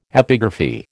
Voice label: "epigraphy", American